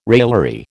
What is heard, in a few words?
"raillery", American